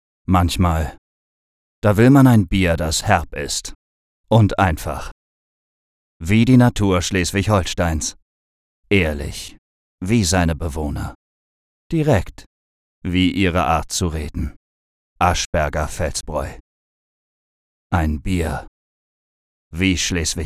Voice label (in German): Werbung